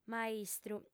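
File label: "maistru", female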